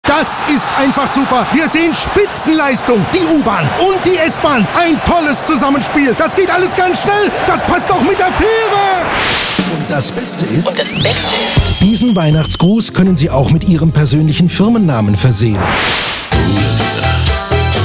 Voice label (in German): Werbung Off Spielszenen